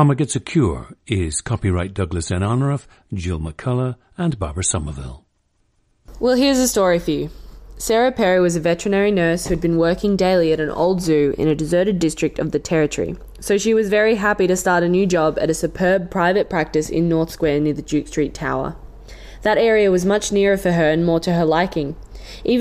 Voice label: australia